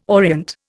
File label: "orient", Indian